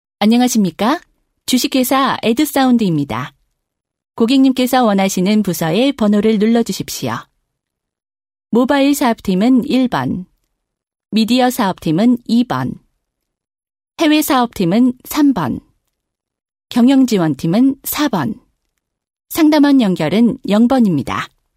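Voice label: Korean, female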